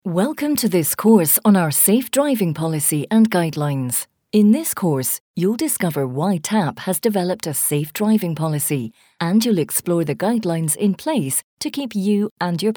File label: irish